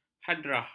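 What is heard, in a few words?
"hadrah", Malay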